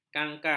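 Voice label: "kangkang", Malay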